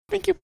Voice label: cute thank you